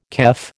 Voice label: "kef", American

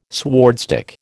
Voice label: "swordstick", American

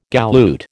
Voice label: "galoot", American

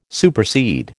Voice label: "supersede", American

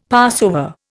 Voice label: "Passover", Indian; Dictionary

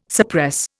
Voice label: "suppress", Indian

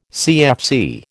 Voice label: "CFC", American

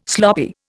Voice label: "sloppy", Indian

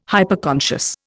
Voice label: "Hyperconscious", Indian

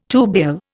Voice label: "tubule", Indian

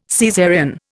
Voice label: "Caesarean", Indian

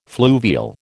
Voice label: "fluvial", American

